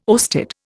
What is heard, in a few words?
"oersted", Indian